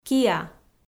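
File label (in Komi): "киа", Komi, female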